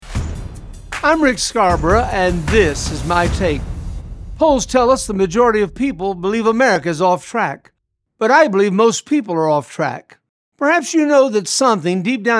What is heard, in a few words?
Radio Spot; Salvation Prayer